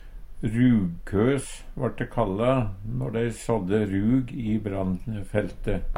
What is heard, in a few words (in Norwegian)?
rugkos, Numedalsmål; Substantiv inkjekjønn